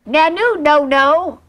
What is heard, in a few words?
nanoo nono, gibbrish; Voice